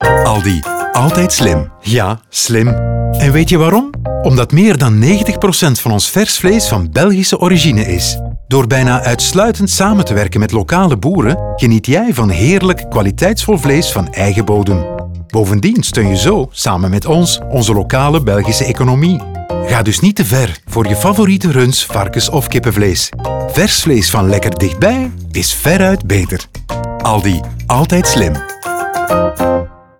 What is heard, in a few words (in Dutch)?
Radio; Waarheden; Februari